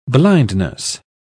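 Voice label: "blindness", British English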